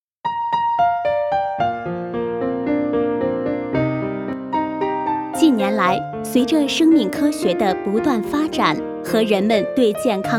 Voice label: 女 专题 富硒产品 音乐

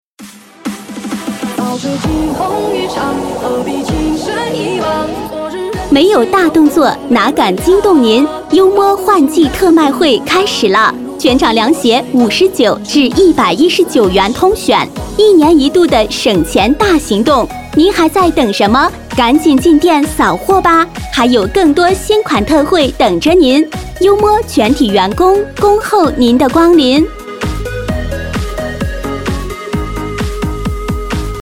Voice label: 女 促销 激情 音乐 换季特卖

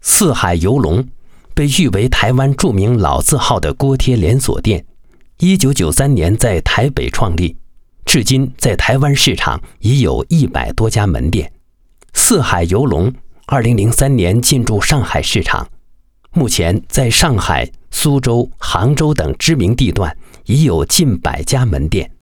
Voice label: 男; 四海游龙

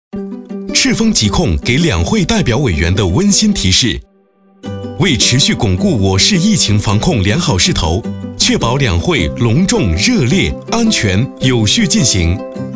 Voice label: 男 MG 疫情防控

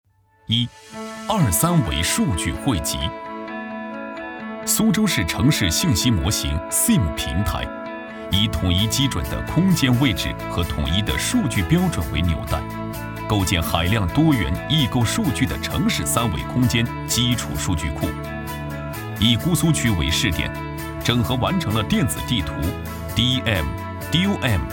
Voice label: 男 专题 企业专题

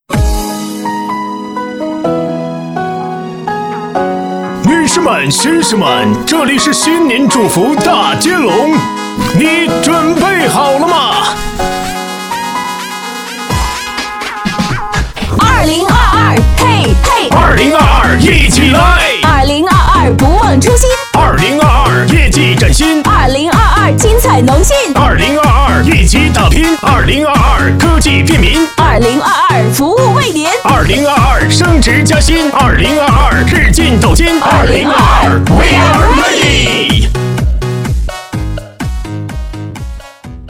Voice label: 男 戏曲 男女说唱 新年祝词; 2022农信新年祝词

